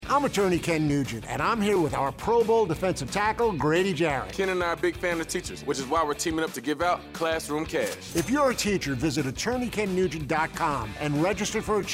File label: RADIO; NU Grady Jarrett Classroom Cash